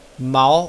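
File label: "mao", Mandarin Chinese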